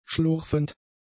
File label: "schlurfend", German; adjectives